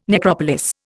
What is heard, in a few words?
"necropolis", Indian